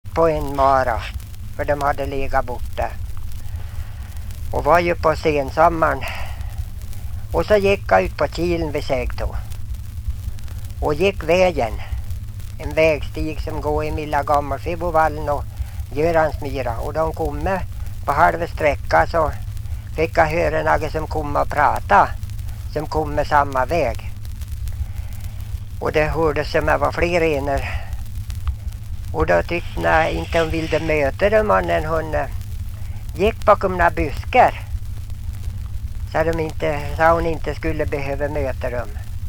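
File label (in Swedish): Ljustorp Medelpad, dialekter; Folkminnen; Svenska sagor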